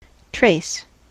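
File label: "trace", US English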